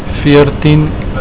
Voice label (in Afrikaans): "veertien", Afrikaans